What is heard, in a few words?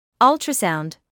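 "ultrasound" English, British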